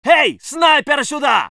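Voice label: voice soviet infantry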